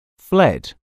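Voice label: "fled", English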